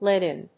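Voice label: "lead-in", English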